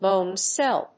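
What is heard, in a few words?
"BONE CELL", English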